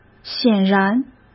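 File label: "显然", Mandarin Chinese; hsk; chinese-flashcards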